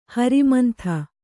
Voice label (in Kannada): "ಹರಿಮಂಥ", Kannada